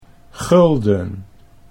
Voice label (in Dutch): "gulden", Dutch